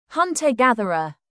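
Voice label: "hunter-gatherer", British English; Time, history; Thời gian, lịch sử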